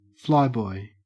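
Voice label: "flyboy", Australian English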